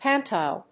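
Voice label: "pantile", English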